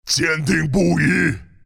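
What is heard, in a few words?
move, chinese; Husk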